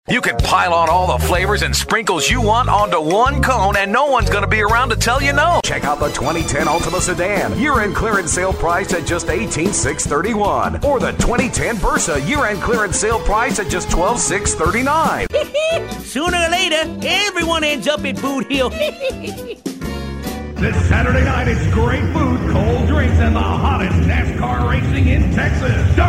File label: English, males